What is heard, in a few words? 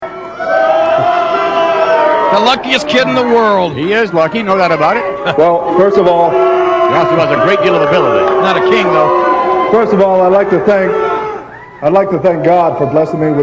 acceptance speech; Rockys